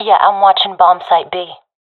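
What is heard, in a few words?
guarding bombsite b, professional female; vo; agents; Source Model Packs; Counter Strike Model Pack; CS2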